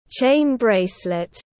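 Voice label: "Chain bracelet", English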